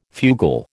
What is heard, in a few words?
"fugal", American